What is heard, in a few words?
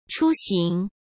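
"出行", Mandarin Chinese; chinese flashcards; hsk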